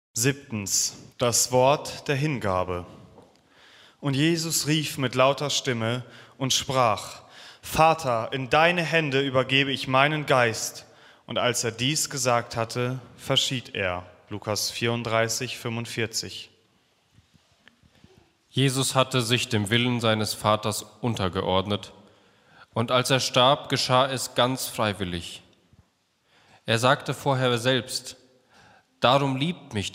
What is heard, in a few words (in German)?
Lesung; Passionssingen 2026